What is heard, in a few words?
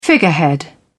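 "figurehead", English, British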